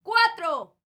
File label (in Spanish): FEM CUATRO, MEXICAN; ILIO; Vocal Planet VOL-5